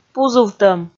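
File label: "пузувтӧм", Komi, female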